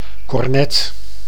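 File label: "kornet", Dutch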